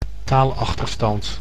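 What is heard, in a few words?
"taalachterstand", Dutch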